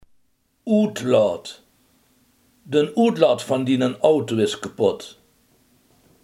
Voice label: "uitlaat", limburgse

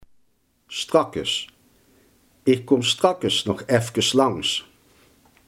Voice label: "straks", Limburgs

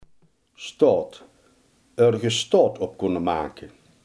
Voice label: "staat", Limburgs

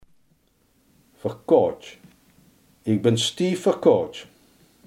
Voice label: "verkouden", limburgse

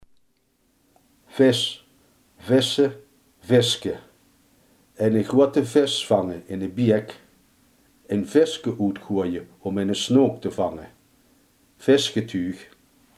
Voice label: "vis", limburgse

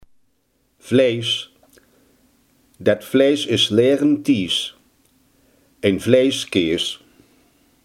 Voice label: "vlees", limburgse

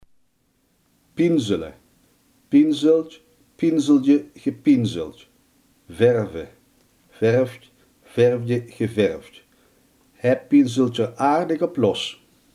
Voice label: "verven", limburgse

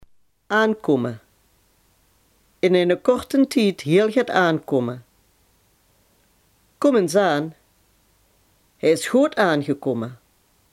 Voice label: "aankomen", Limburg

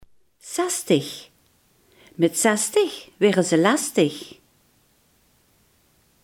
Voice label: "zestig", limburgse; zestig, sestig; Mèt sestig waere ze lestig!

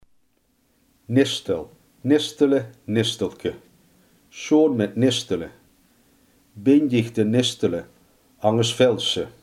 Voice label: "veter", limburgse

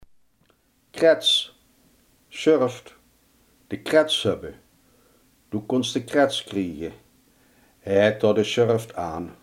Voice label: "schurft", Limburgs

